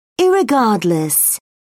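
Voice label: "irregardless", British English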